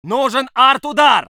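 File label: voice soviet infantry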